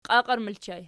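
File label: "qiaqiera" ergative plural, Archi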